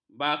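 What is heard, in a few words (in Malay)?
"bakup", Malay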